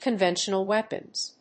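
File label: "conventional weapons", English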